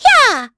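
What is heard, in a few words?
Vox Attack, voices heroes English; kingsraid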